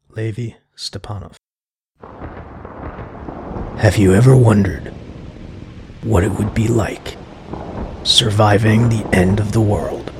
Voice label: trailer